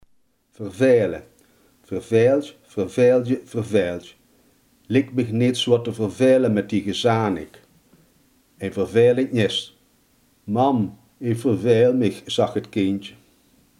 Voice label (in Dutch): "vervelen", limburgse